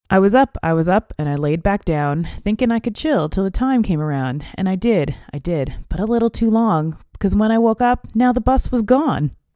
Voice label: TLM102 USBPre, microphones